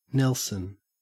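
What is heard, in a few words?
"nelson", English, Australian accent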